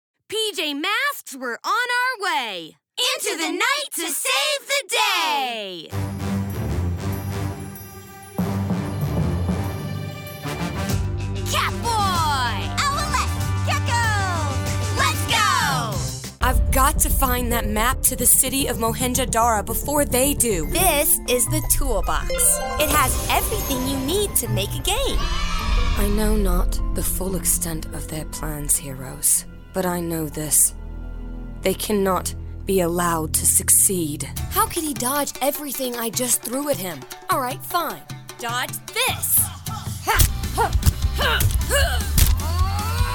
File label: Animation, Voiceover; Animation Demo; 2019 remix